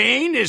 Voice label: soldier s voice; painis